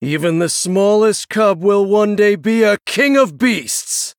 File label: male human, Threaten